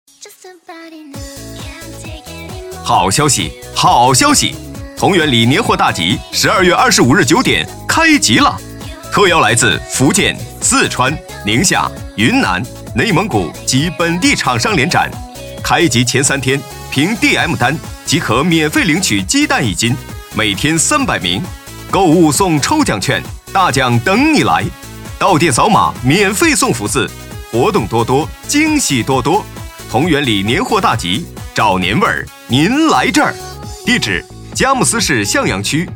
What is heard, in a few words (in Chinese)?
男 促销 年货大集